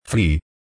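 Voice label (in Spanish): "fli", Spanish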